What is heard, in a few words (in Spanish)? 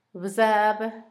huérfano, Zapoteco, Asunción Ocotlan; Humano; INALI